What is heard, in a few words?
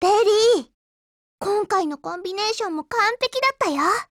WIN, JP; girlsfrontline